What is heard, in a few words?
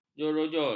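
"jerojol", Malay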